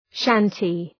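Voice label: "shanty", English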